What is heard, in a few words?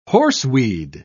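"horseweed", British English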